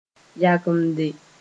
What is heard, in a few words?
"yagemde", Moore